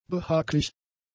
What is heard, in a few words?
"behaglich", German; adjectives